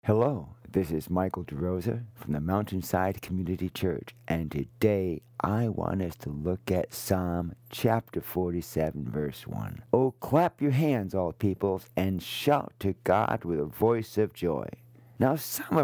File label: Radio Sermonette; Shout for Joy; Ps 47 1